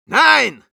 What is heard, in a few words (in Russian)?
Medic no, ru; «Nein!» (Перевод: «Нет!»)